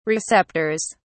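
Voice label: "receptors", British English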